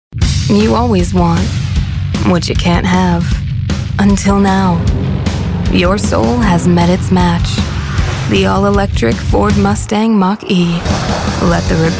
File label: commercials women